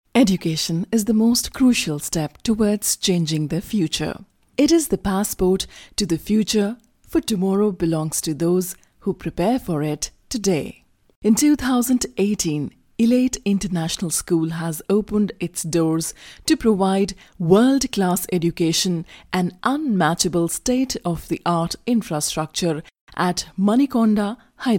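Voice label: narration English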